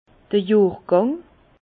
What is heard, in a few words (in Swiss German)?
"johrgang", Bas Rhin; vin; année